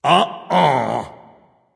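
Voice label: disagree, dragon; fafnir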